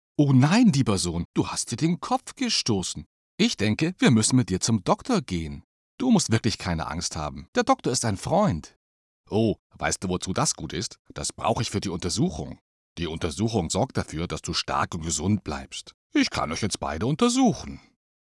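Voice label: german male character; voice demos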